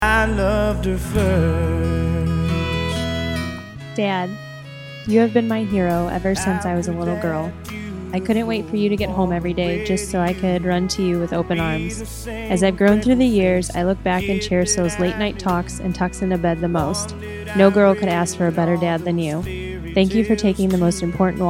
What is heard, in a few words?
Voice Over Web Sample